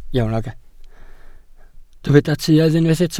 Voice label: "tuedd", Welsh